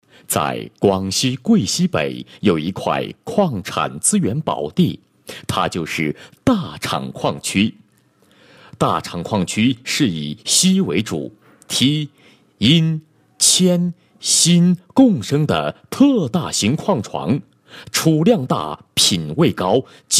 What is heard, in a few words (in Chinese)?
男16 大厂矿区（正式感）; 优质年轻